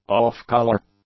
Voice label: "off-color", english; adjectives

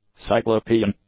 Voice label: "cyclopean", English; adjectives

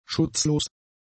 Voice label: "schutzlos", German; adjectives

